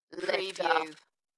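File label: British female Lift off; Dalekness; beanstalk audio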